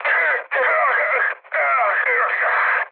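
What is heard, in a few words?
Italian damaged pilot mood high, speech; War Thunder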